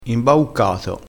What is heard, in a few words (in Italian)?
"imbauccato", crespinese